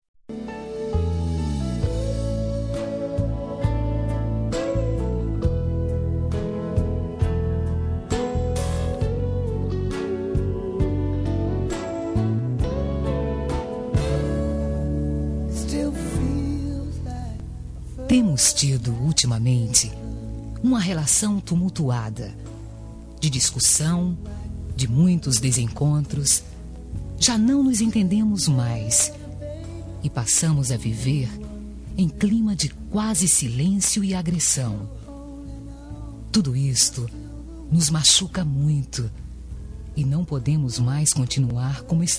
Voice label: ROM TERMINO DE NAMORO FEM

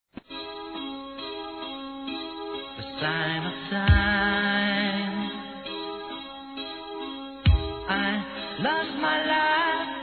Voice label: ANIV. NAMORO M

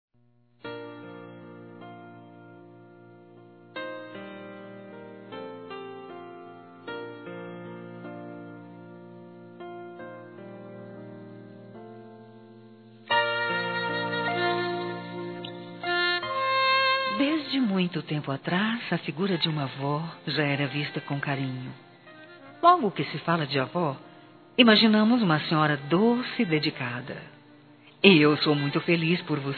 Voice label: Aniversário Avó Feminino

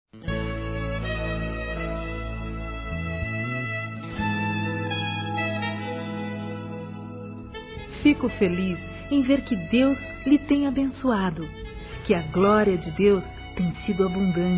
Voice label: PROSPERIDADE PARA ALGUÉM (F), Telemensagens, Gospel, fem; Telemensagens 2017

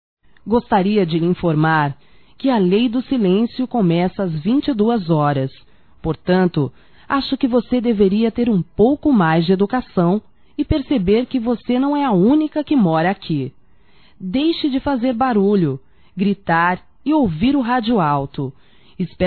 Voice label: Lei do Silencio Feminino, Broncas; Telemensagens 2017

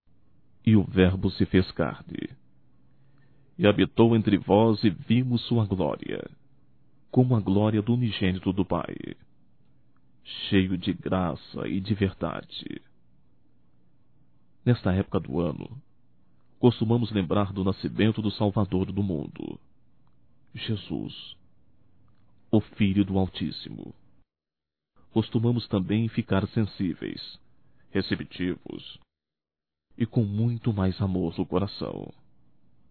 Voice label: NATAL AMIGO, masc; Natal e ano novo; Telemensagens 2017

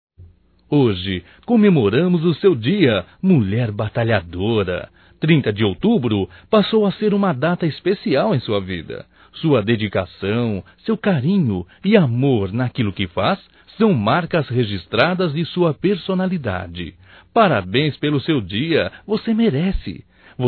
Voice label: Dia Balconista Feminino Masculino; Telemensagens; Só Voz; DATAS COMEMORATIVAS

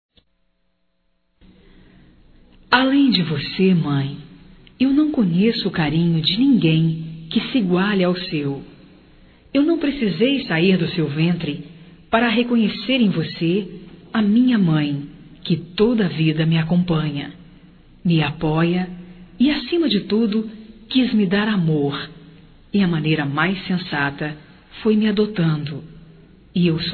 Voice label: Homenagem a Mãe Adotiva Feminino, Telemensagens; Homenagens; Telemensagens 2017

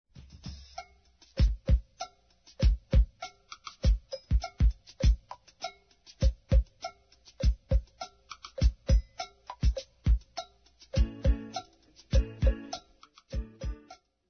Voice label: Aniversário Neutro F; Aniversários; Aniversários diversos

